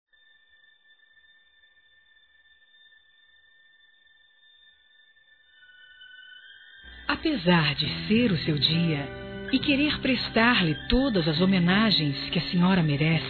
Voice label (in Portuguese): DISTANTE F, Telemensagens Dia das Mães Fem; Telemensagens 2017